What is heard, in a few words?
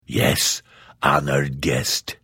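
Hello, Vo; Morrowind GOTY GOG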